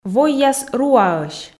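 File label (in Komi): "войяс руаӧсь", Komi, female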